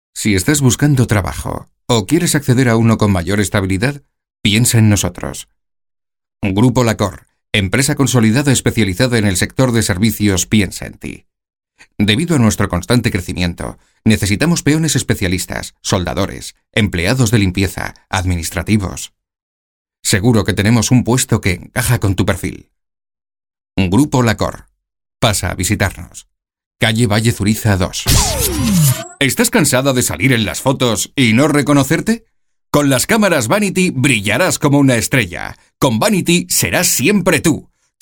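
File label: male Castilian Spanish voice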